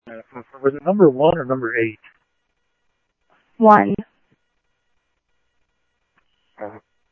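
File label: Mesa Simulcast Police Department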